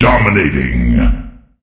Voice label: Voices Announcer Reward

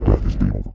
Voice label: Voice Casting

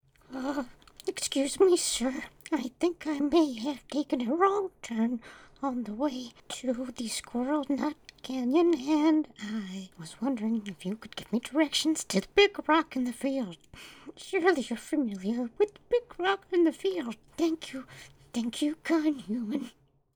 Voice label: Male Beg

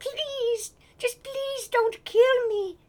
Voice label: Voices Female Beggings

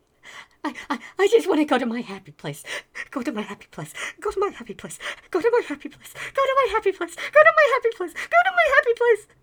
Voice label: Male Begging

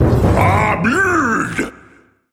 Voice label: Taunt - Dwarf; Robocraft

